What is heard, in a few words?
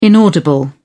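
"inaudible", British English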